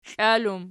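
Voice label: "klal" plural, Archi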